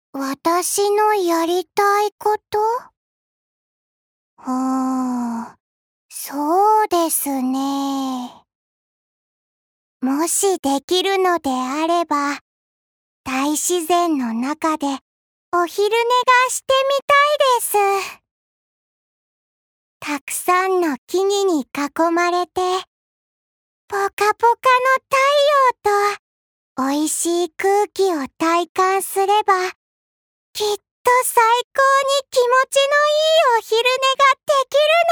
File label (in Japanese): アニメ